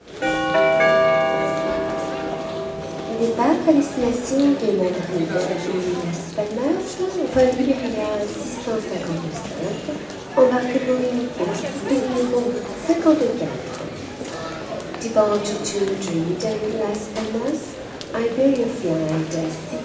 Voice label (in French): Airport Annonce Depart, Airport; french